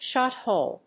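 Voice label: "shot hole", English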